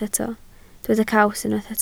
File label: "caws", Welsh